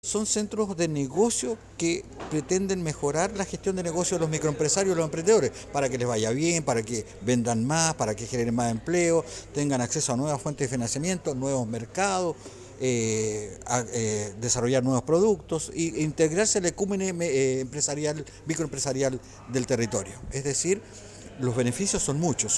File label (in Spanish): CUÑA; CUÑA VHF